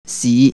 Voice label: Cantonese city